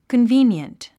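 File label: "convenient", English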